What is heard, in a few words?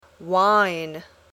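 "wine", English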